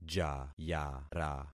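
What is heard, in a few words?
"jayara", concatenated syllables; Affixing experiment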